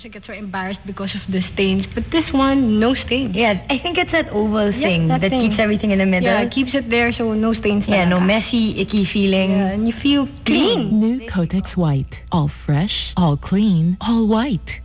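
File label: ENG F, English female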